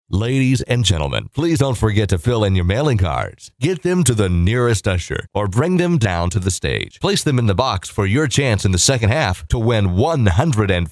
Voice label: Pitch dry, faster read